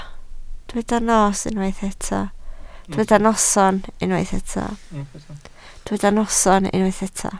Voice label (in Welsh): "noson" Welsh